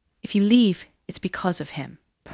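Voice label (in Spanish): Voice Acting, Spanish, female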